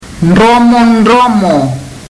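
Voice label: "dromodromo", Fijian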